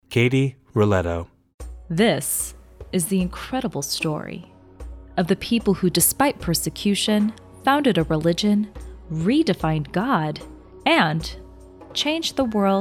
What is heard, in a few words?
Narration